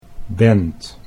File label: "bent", Dutch